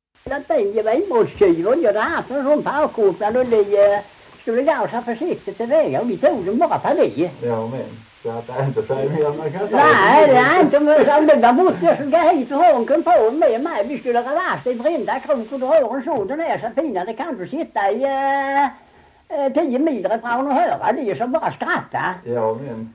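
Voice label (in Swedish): Ramdala Blekinge, dialekter svenska sagor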